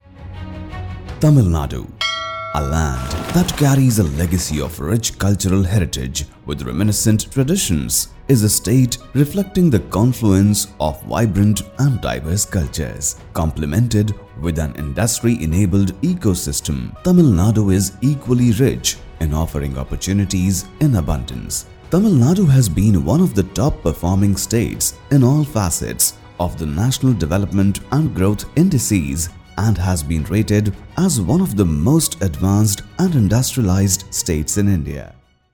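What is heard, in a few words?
English government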